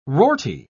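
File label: "rorty", British English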